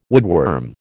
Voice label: "woodworm", American

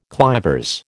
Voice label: "clivers", American

